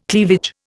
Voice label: "cleavage", Indian

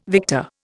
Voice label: "victor", Indian

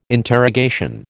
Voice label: "interrogation", American

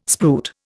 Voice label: "spruit", Indian

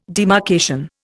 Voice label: "demarcation", Indian

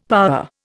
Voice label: "power", Indian; Dictionary

